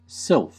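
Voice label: "sylph", American English; pronunciation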